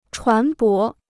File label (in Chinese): "船舶", Chinese